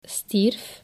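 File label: "stierf", Dutch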